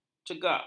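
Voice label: "cegak", Malay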